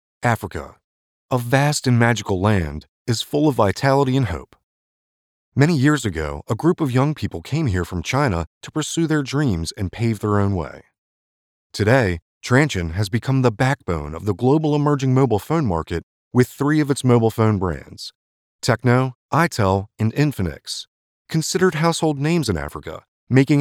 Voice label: EN VIDEO; TRANSSION